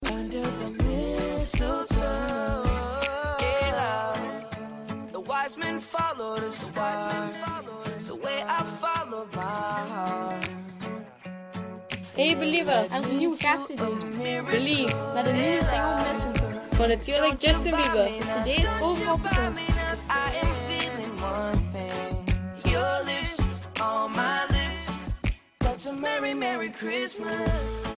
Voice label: radio commercial

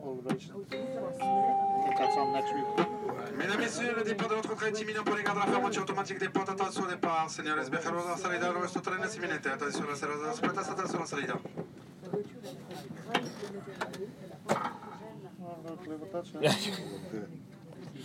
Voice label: fastest train announcer; in the west

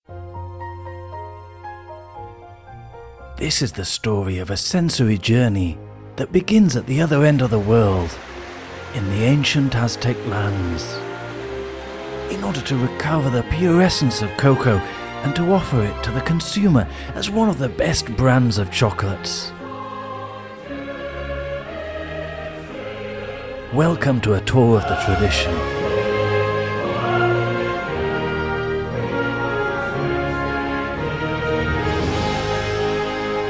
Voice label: British English voiceover for corporate video

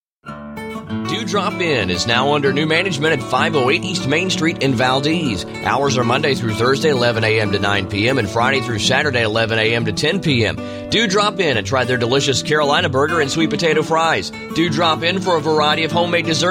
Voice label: radio commercial

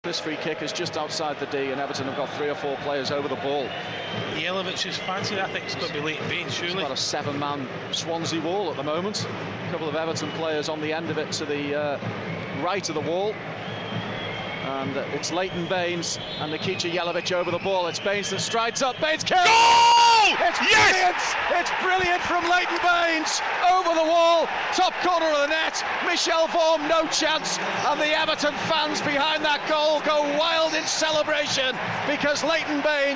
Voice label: commentary; swansea 0 2 everton

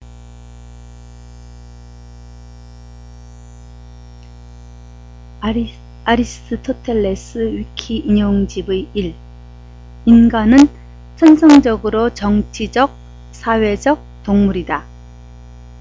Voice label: Korean

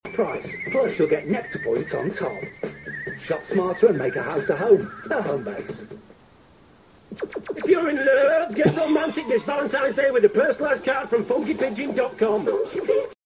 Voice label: wavy speech

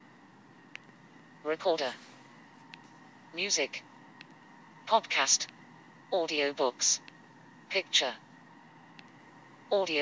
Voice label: voice menu prompts; olympus dm-5

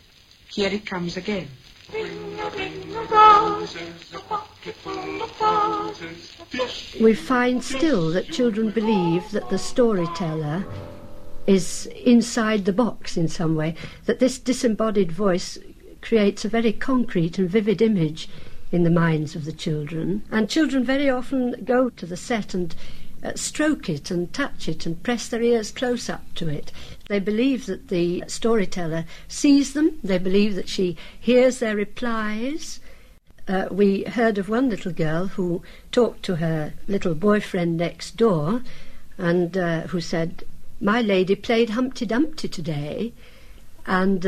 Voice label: listen with mother narrative

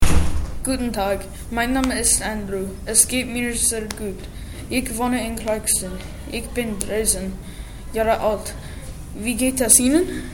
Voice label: german

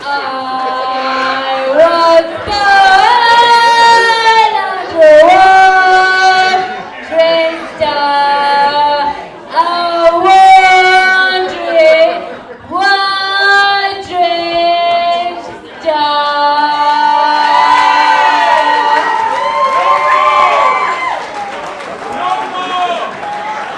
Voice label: terrible voice

